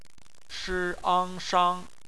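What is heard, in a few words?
"shang" bopomofo, Chinese; phonetics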